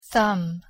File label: "thumb", en